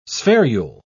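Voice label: "spherule", British English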